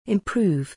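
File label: "improve", English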